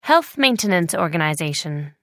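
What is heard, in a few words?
"health maintenance organization", British English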